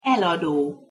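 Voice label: "elado", Hungarian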